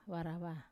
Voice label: "varava", palm, Wamesa